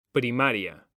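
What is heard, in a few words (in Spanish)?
"PRIMARIA", Spanish; mekanta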